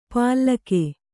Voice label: "ಪಾಲ್ಲಕೆ", Kannada

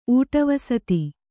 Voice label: "ಊಟವಸತಿ", kannada

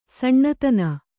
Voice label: "ಸನಾತನ", Kannada

